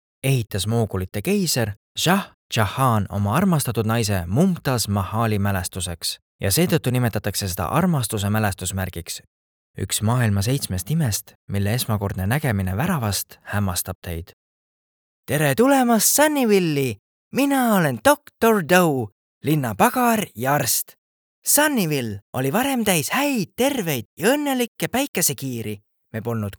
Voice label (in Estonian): Eesti voiceover, meeshaaled